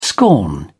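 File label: "scorn", British English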